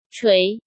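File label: "Chuí", Chinese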